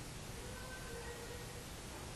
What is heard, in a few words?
someone calling a name